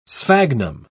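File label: "sphagnum", British English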